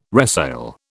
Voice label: "resale", British